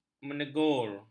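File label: "menegur", Malay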